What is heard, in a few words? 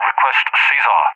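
"Request CSAR", English, UK; DCS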